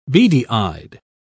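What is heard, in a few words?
"beady-eyed", English, British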